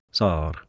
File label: "sar", Anglo Saxon